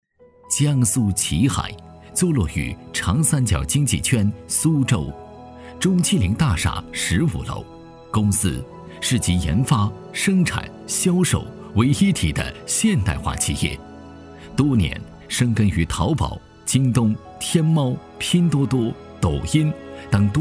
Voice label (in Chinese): 公司介绍 低沉磁性; 江苏启海